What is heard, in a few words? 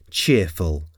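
"cheerful", English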